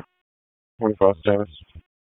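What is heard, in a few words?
Police Southeast District Dispatch; Raleigh; wake; p25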